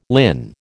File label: "linn", American